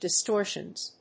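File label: "distortions", English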